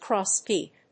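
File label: "crosspeak", English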